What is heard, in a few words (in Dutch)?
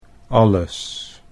"alles", Dutch